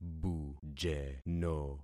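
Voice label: "bujeno", concatenated syllables; Affixing experiment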